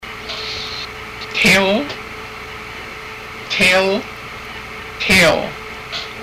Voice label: thigh, haida; Tíl

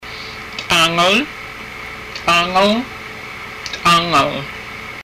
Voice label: tongue, haida, head and face; T'áangal

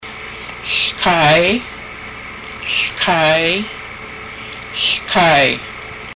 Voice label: chin, haida language

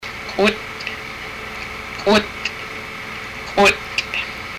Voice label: lips, haida; K'ut

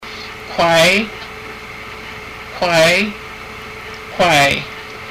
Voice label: hips, haida; K'wáay

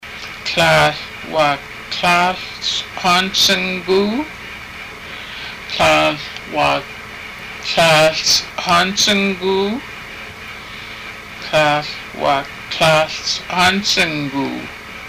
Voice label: nineteen, haida, numbers; Tláahl wáak tláahl sgwáansang guu

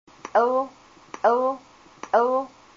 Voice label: yellow seaweed, haida language

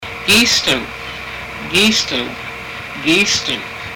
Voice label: how much, haida language

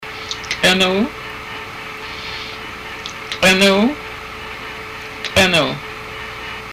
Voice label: breast, haida; Tl'ánuu; female breast

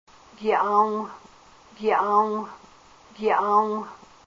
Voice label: cloth, haida language; gi'áangw

